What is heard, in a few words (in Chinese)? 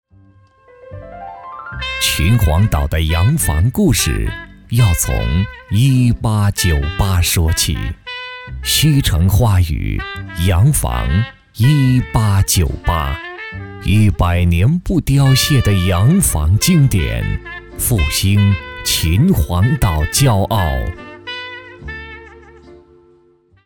男 地产广告 优雅 磁性